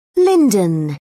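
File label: "linden", British English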